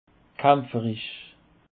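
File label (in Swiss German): "kaempferich", Alsatian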